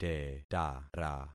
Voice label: "tetara", concat syllables; Affixing experiment

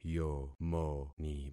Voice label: "yomoni", concat syllables; Affixing experiment

